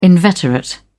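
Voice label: "inveterate", British English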